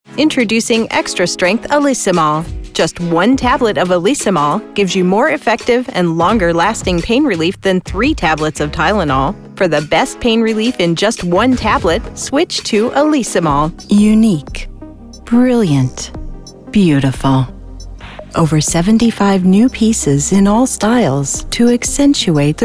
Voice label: Commercial